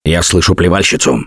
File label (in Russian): heard spitter, left4dead2 russian, Survivor Voice